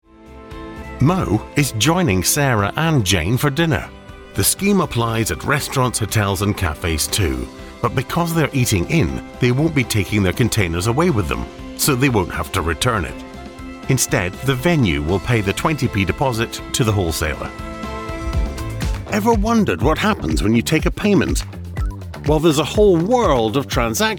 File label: english scottish explainer videos